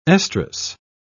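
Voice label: "estrus", British English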